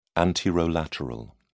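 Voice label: "anterolateral", British English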